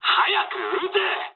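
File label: Japanese attack position mood med; War Thunder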